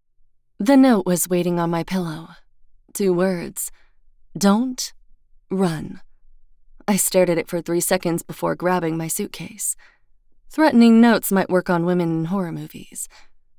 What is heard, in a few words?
Dark Romance Suspense MF 1st Person POV